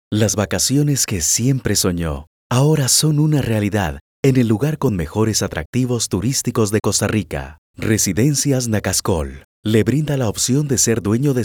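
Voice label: Spanish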